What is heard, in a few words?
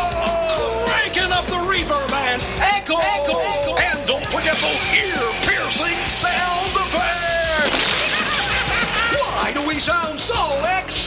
Drag Racing Commercial